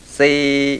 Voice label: mid level tense, mpi